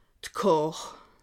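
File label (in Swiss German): "kar", Alsatian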